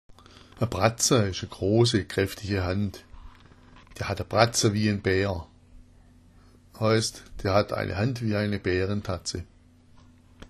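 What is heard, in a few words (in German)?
bratza, schwäbisch; Eine Bratza ist eine große, kräftige Hand.; Der hat eine Bratza wia en Bär – der hat eine Hand wie eine Bärentatze.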